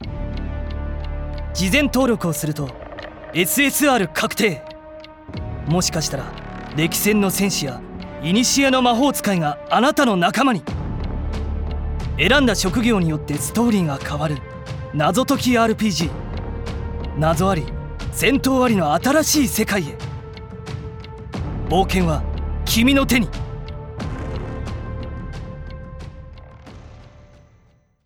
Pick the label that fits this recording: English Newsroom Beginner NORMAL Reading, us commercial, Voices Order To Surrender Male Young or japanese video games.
japanese video games